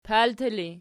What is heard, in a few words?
"palti", Archi